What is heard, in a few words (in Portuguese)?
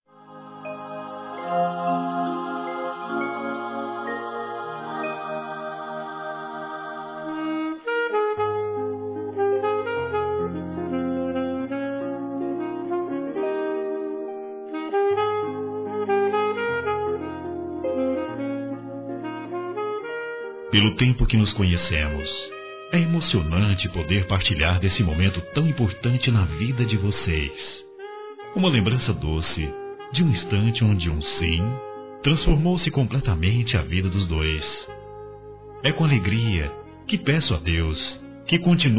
Aniversário Namoro Masculino; Aniversários; ANIVERSARIO romantico